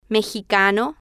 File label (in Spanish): "mexicano", Spanish